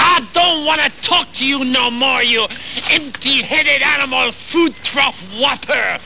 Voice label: NO TALK

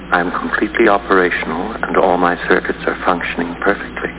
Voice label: hal speak

